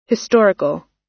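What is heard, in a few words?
"historical", US English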